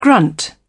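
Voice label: "grunt", British English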